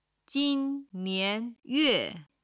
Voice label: "jin1 nian2 yue4", chinese